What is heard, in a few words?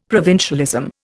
"provincialism", Indian; Dictionary